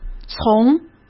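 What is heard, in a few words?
"从", Mandarin Chinese; chinese flashcards; hsk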